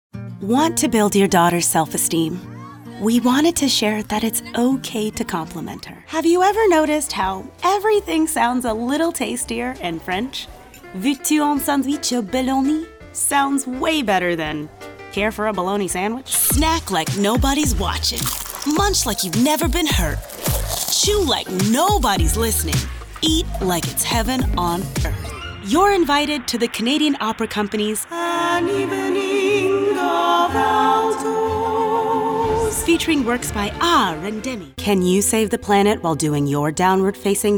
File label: Commercial